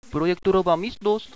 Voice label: projector room, radio bot

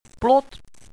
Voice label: fence, radio bot

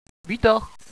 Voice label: elevator, radio bot

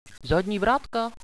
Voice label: back door, radio bot

